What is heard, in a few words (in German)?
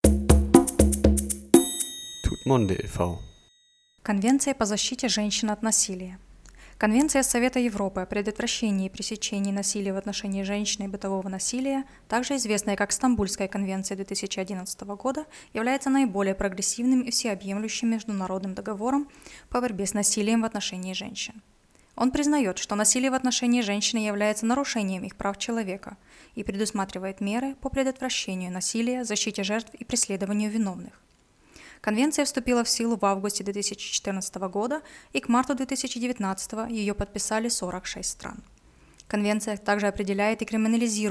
istanbul konvention russisch